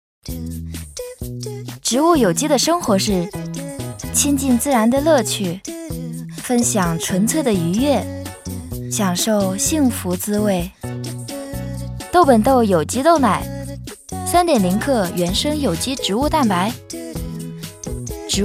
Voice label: 广告 活力; 豆本豆

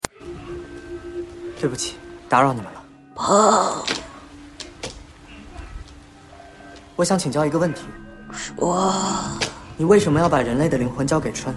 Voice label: 多种声线随意转换

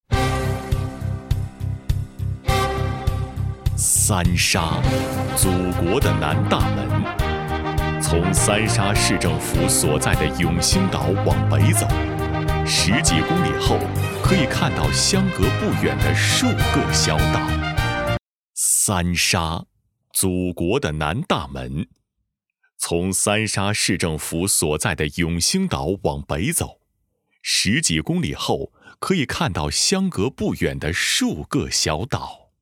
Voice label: 专题片-浑厚大气; 三沙

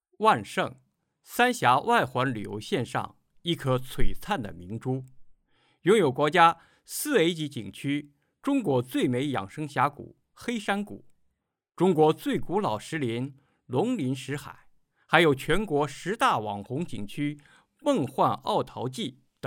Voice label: 纪录片 配音

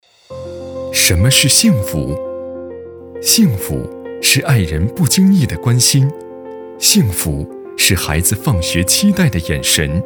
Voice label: 企业宣传 时尚, 大气浑厚, 普通话, 男, 中年; 什么是幸福; 声音特点大气浑厚且富有磁性

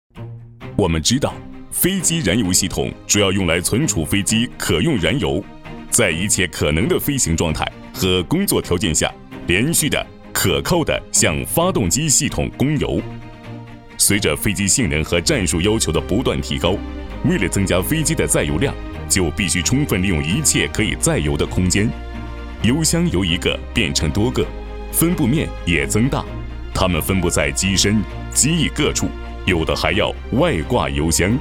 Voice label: 产宣 旁白解说; 慕克

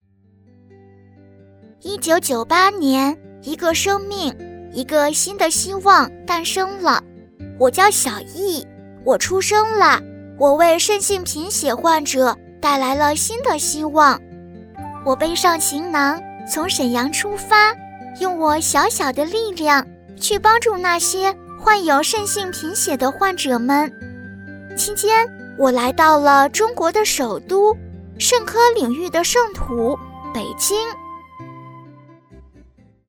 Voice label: 女童

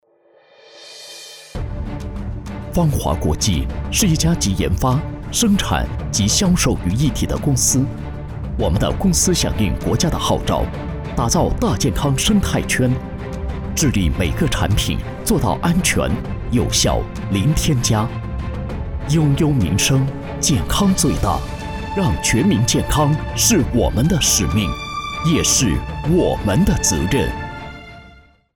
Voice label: 企业集团汇报宣传

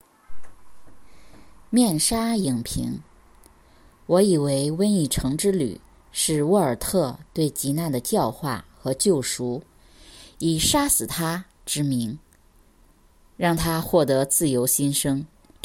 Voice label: 书评配音

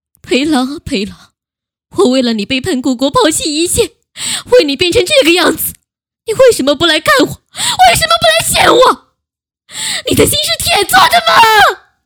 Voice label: 动漫配音

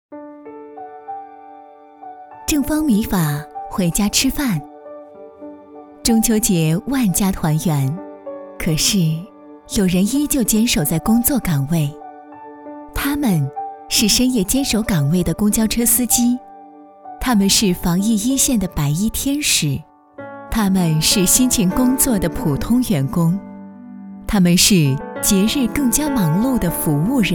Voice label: 温柔大气广告

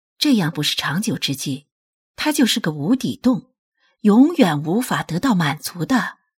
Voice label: 严肃

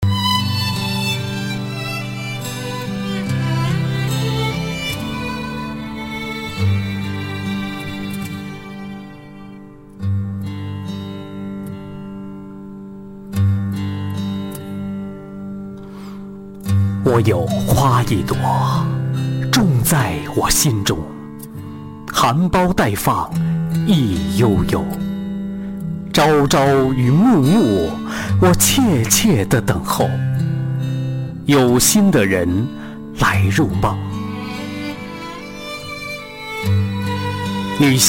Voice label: 朗诵

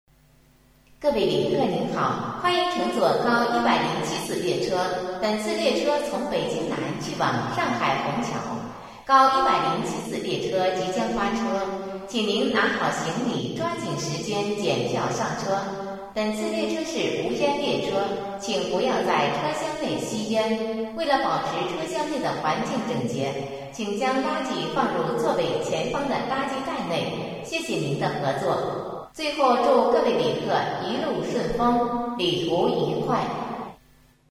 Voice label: 高铁播音

